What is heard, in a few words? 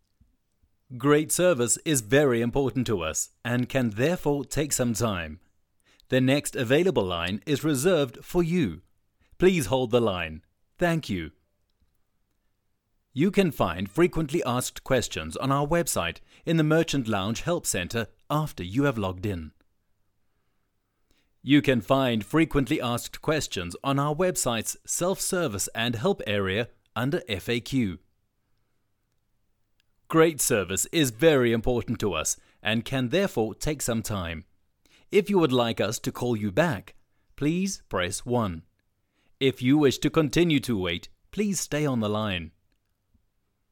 english south african ivr